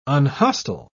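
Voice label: "unhostile", British English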